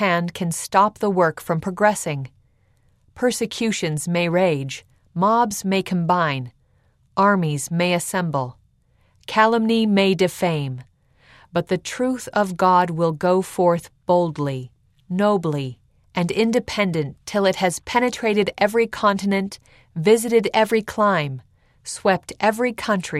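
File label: epigraph female voice, english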